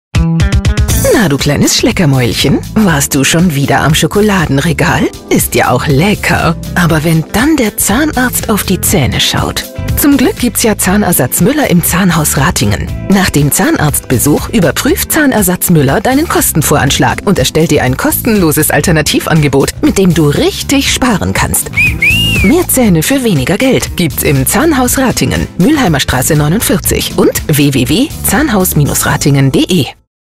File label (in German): Radiospot; Zahnersatz Mueller im Zahnhaus Ratingen